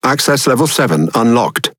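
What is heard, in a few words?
Speech Access Level, British English; World Of Warships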